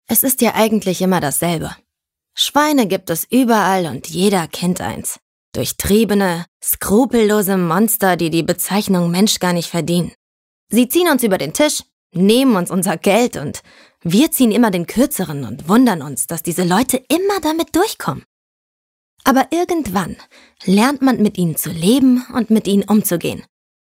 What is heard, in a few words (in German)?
Sprachprobe cool